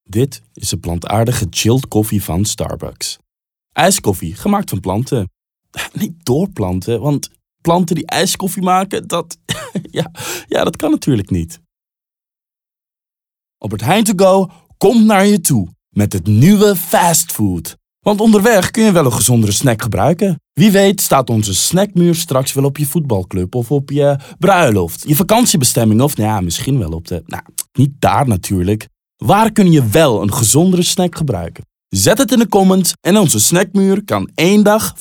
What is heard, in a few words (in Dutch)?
Acting Vriendelijk